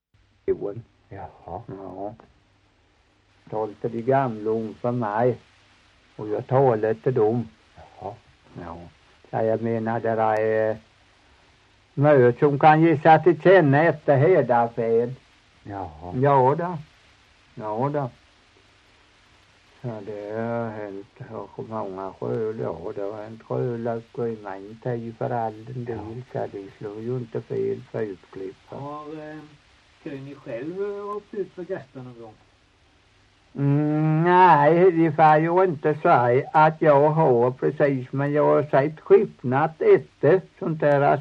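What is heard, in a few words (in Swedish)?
Torhamn Blekinge, dialekter; Folkminnen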